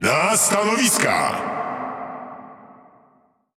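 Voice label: Speech Lets Battle, Polish; World Of Warships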